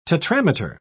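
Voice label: "tetrameter", English, British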